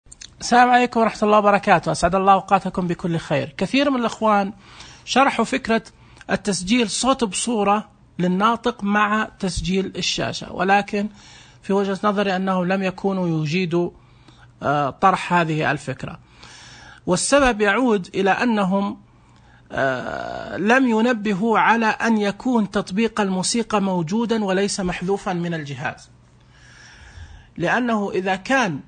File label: voice over